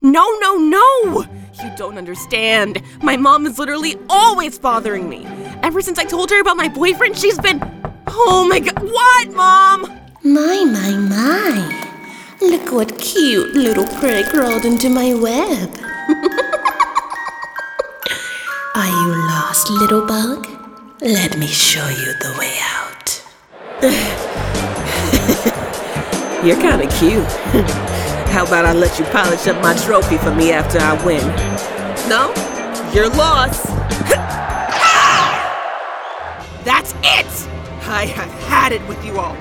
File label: animation nonbinary